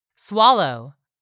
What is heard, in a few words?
"swallow", English; 3000 words